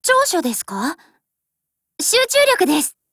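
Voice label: DIALOGUE, Japanese; MAB38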